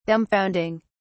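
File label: "dumbfounding", British English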